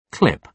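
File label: "clip", British English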